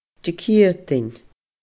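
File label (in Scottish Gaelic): "DiCiadain", Scottish Gaelic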